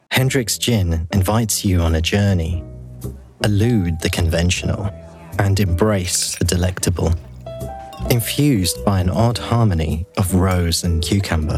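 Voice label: Commercial Remix Shorter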